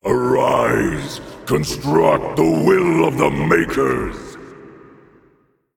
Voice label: Male Titan Trigger

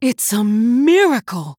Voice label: Female Human, Wow

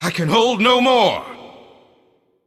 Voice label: Male Void Elf hand full

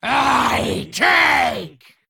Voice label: Female Mummy (Kobold) Attack

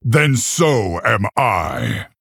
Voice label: Male Orc greetings

